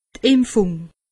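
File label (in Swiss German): "impfung", Alsatian; medecine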